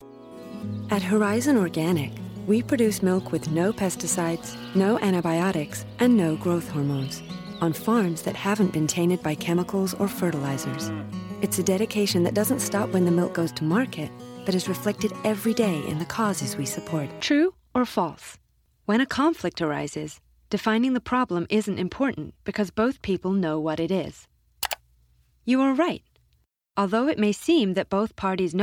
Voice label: eLearning/Training Female English (American)